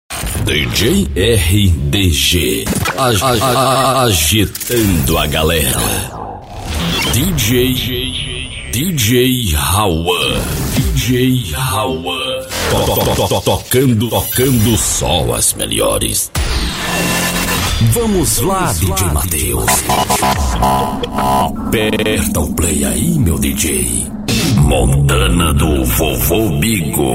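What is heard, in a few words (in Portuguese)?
♂, vozes para vinhetas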